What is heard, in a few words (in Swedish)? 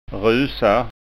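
"rusa", Skåne dialect Swedish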